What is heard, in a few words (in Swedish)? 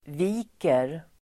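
"viker", Swedish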